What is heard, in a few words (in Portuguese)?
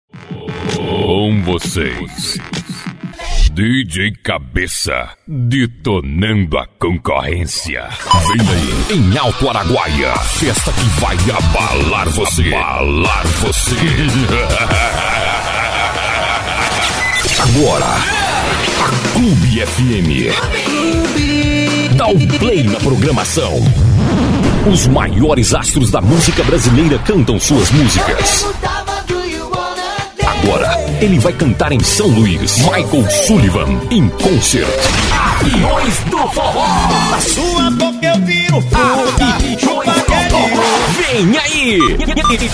banco de vozes locutor br